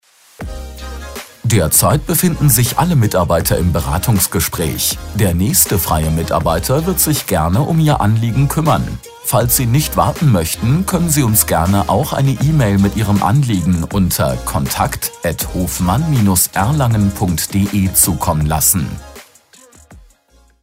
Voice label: Entsorgung Alle im Gespraech, Telefonansagen; Hofmann Entsorgung

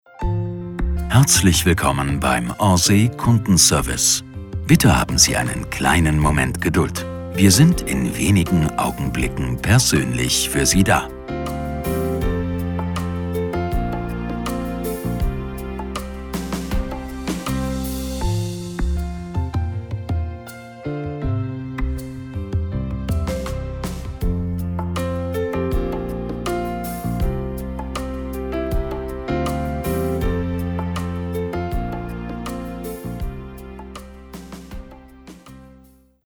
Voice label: Ansage; Orsay

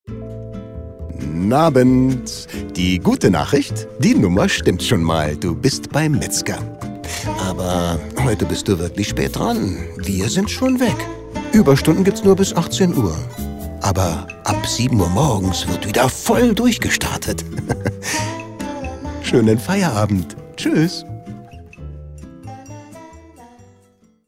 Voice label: Guten Abend Ansage

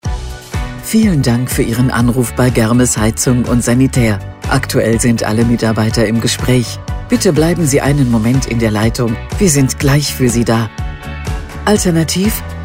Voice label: Begruessung, Telefonansagen, Begrüßungsansage; Sanitär & Heizung (SHK); Germes Heizung & Sanitär

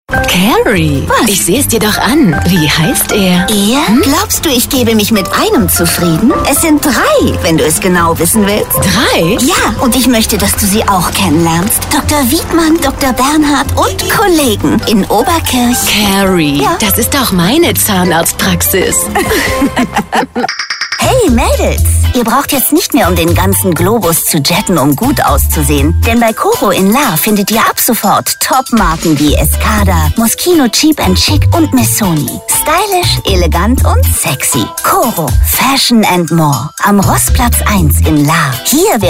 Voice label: telefonansagen sprecher; sarah jessica parker; Hollywood Sprecher am Telefon

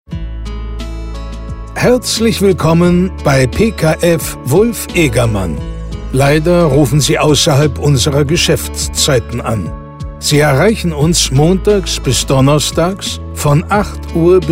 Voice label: telefonansagen sprecher; robert redford

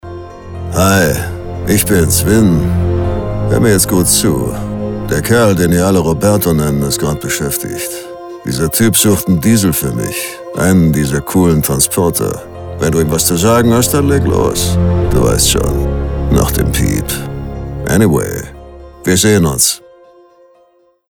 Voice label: Mailboxansage; Deutsche Synchronstimme; Telefonansage; Automobile LOPP; Deutsche Synchronstimme von Vin Diesel spricht Telefonansage

